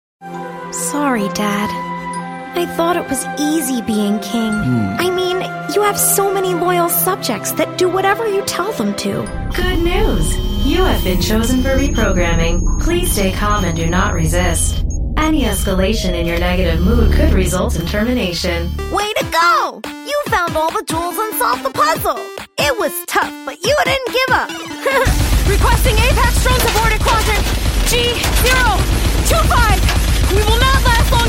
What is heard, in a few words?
english american animation